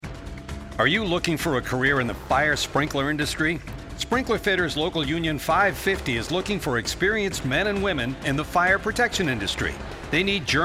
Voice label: Open House Male Voice